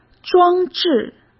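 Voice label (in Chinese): "装置", Mandarin Chinese; hsk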